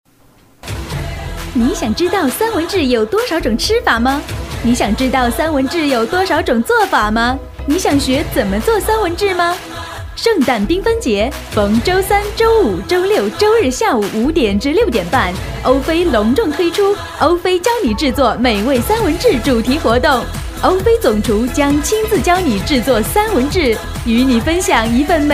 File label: girl food, Chinese